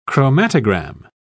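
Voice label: "chromatogram", British English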